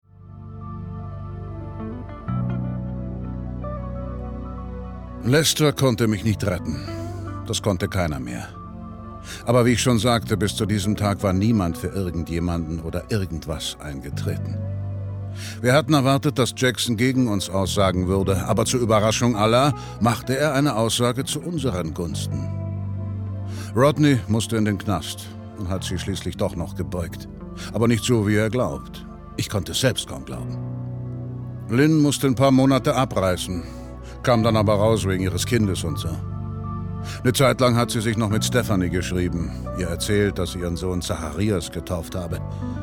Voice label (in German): synchron